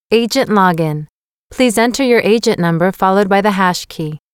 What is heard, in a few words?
american english Agent Login